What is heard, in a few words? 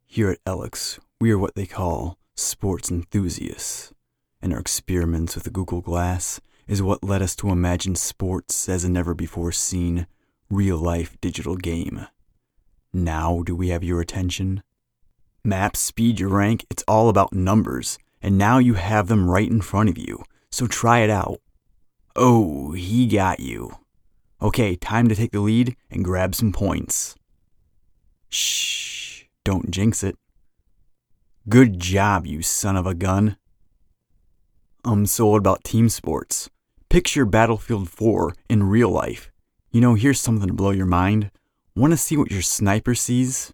Studio Quality